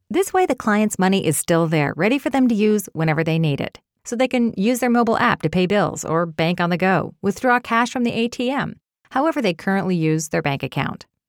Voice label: Friendly Approachable

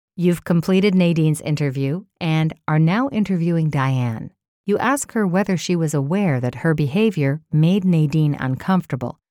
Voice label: Calm coach corporate elearning

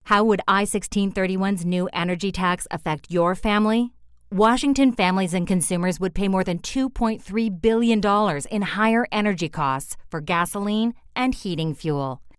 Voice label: Political ad

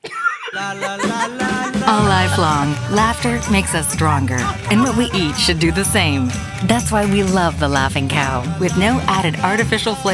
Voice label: Voice Over Artist Commercial

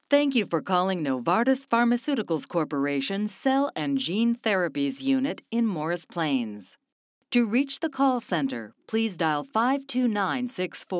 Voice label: Corporate Mature

